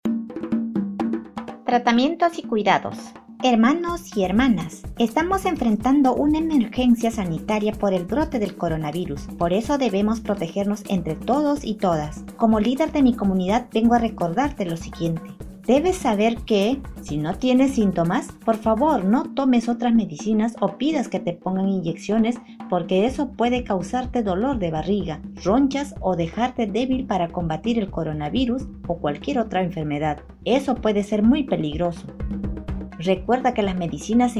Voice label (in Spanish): espanol musica de la selva, spot de radio; tomar medicamentos con precaucion; sospecha o confirmacion de contagio; pista amazonica